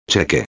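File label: "cheque", Spanish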